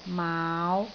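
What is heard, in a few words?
"maau5", Cantonese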